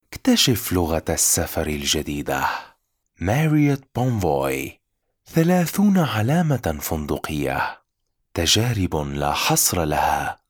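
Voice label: Arabic M Commercial, male; voice over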